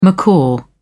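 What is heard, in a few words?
"macaw", British English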